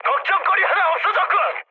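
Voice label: Korean player killed enemy mood high, speech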